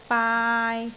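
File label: "baai3", Cantonese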